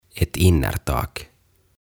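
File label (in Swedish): "ett innertak", Swedish; Sisäkatto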